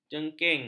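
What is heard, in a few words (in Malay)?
"jengking", Malay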